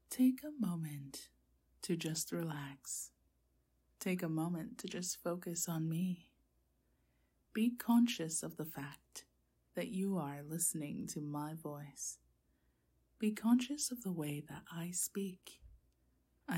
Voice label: F4A Brain Button Stimulation, Hypnosis, ASMR, Deep trance, Snaps; Fdom